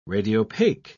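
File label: "radiopaque", British English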